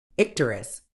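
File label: "icterus", British English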